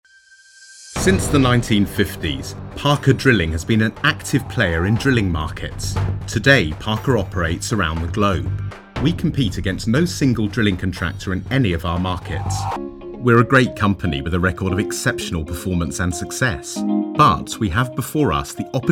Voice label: english british commercial, upbeat

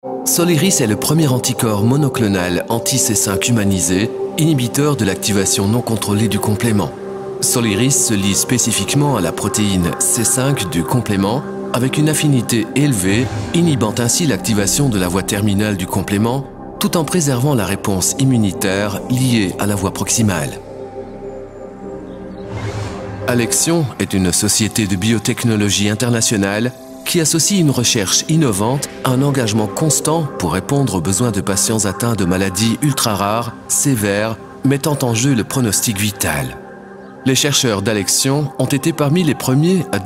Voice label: French

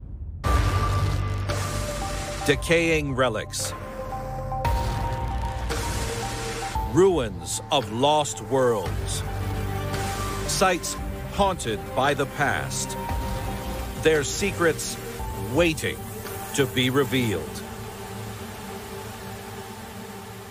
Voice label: english canadian tv shows

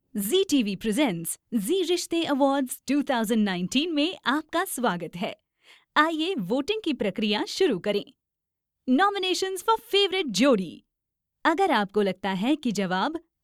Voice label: hindi ivr

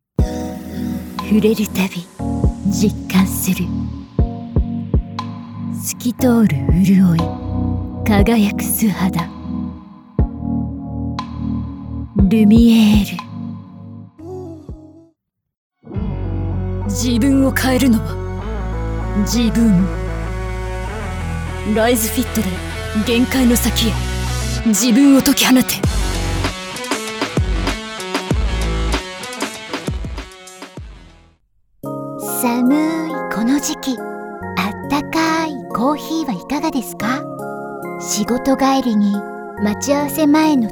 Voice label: japanese commercial, calm; locutora japonesa nativa

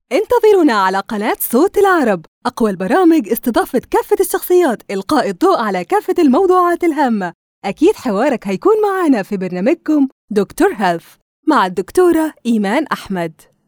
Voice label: arabic promos

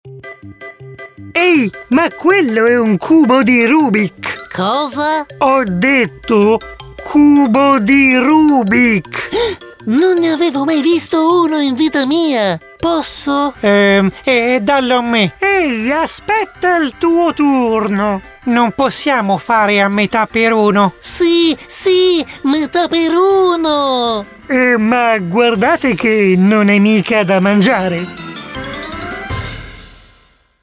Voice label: italian animation

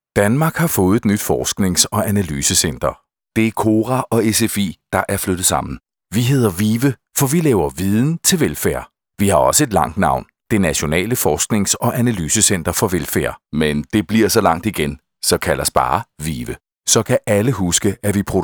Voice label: danish corporate videos

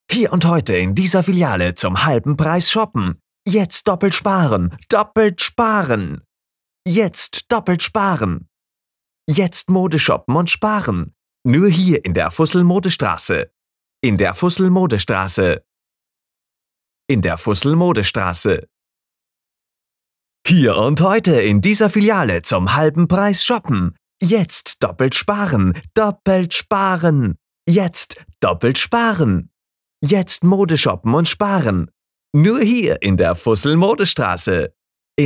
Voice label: german promos

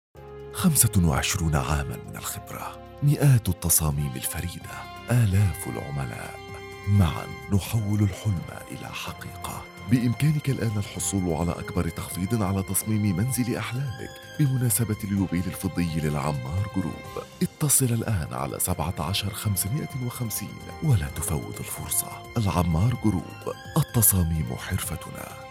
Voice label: arabic commercial

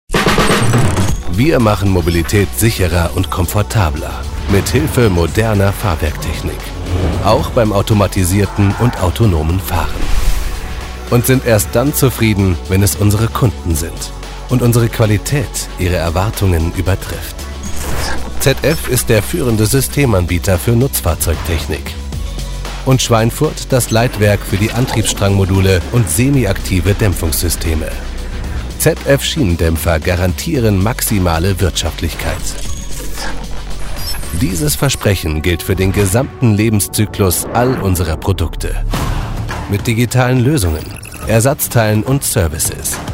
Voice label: german corporate videos

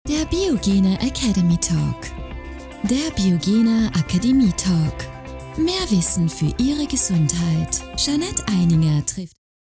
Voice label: german austrian commercial

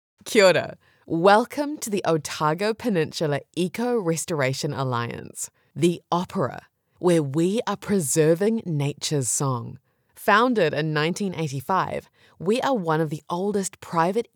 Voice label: english new zealand announcements

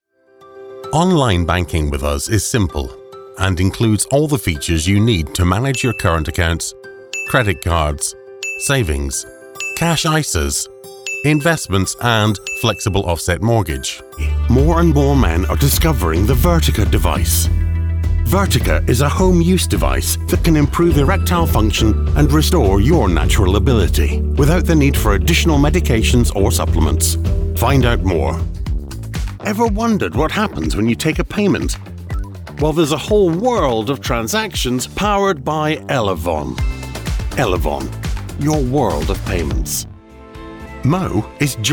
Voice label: english british explainer videos

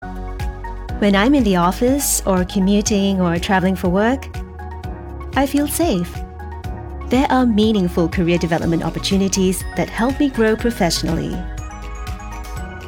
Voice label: english international; Una actriz de voz cálida, amigable y versátil con atractivo global.; singaporean english

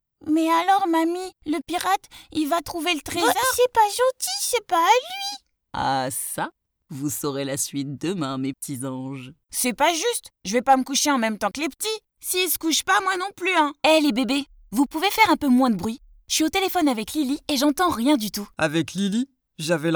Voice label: french impersonations, voice actors

